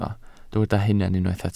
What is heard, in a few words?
"hunan", Welsh